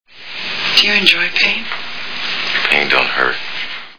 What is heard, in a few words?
pain; Road House